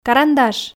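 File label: "карандаш", Komi, female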